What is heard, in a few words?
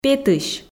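"петысь", Komi, female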